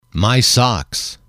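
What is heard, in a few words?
"my socks", English, male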